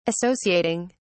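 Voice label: "associating", British English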